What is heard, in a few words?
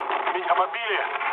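Russian aircraft destroyed mood low, speech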